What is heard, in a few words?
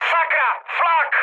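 damaged aa, Czech, mood high; War Thunder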